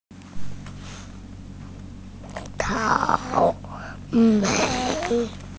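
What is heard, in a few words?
"strawberry", Mandarin Chinese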